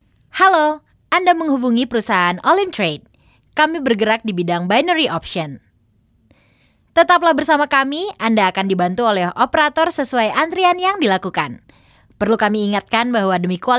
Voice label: Bahasa Indonesia Female Voice